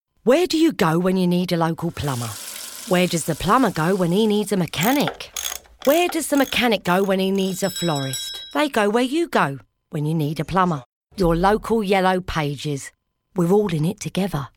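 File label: Relatable, Helpful, English; Yellow Pages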